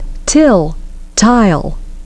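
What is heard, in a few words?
"till" "tile", English pronunciation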